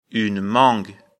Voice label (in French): "mangue", lecture fr